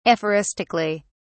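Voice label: "aphoristically", British English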